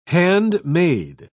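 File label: "handmaid", British English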